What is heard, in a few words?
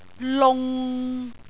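"lung6", Cantonese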